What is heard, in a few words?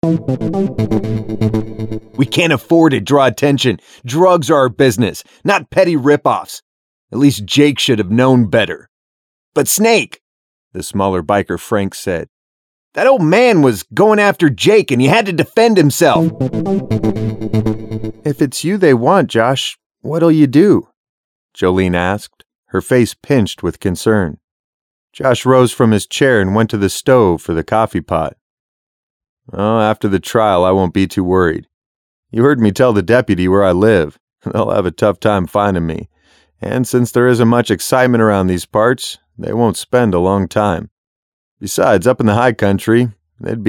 Audiobook